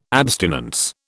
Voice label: "abstinence", British

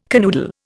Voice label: "canoodle", Indian

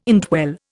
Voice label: "indwell", Indian

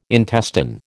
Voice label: "intestine", American

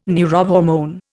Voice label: "neurohormone", Indian

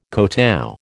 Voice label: "kotow", American

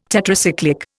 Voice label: "Tetracyclic", Indian

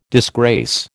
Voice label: "disgrace", American

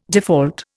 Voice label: "default", Indian

